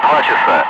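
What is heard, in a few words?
Russian speech, Ka-50 Wingman; DCS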